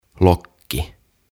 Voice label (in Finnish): "Lokki", "Seagull"; OTAVA KONSERNI